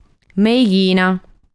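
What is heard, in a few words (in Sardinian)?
"Meighina", Sardinian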